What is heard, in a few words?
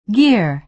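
"gear" American English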